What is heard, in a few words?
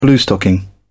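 "bluestocking", British English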